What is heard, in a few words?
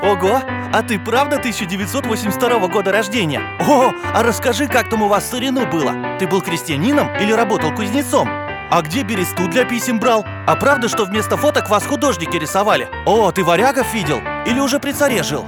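playful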